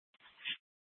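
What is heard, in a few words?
Gilbert, Fire Department, scanner; C4 Gilbert, QC, Superstition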